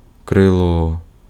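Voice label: Ukrainian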